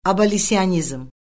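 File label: "abolisionizm", Azerbaijani; Orfoqrafiya Lüğəti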